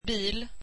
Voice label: swedish car